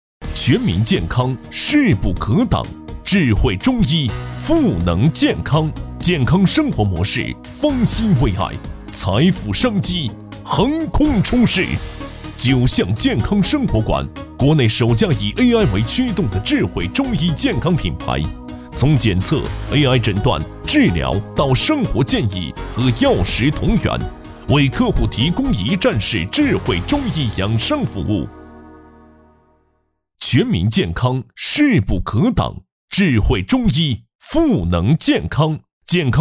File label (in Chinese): 男,宣传,加盟招商片; 九象